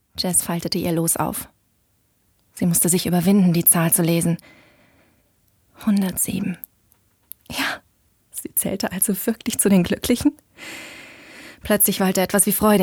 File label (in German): Erzaehlung